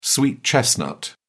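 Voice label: "sweet chestnut", British English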